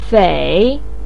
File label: "fei3", Chinese pinyin voice